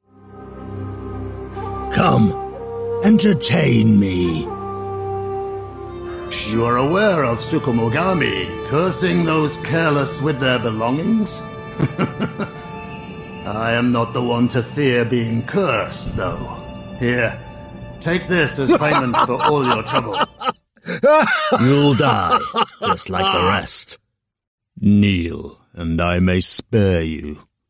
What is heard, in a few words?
voiceover gaming; British